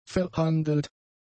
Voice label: "verhandelt", German; adjectives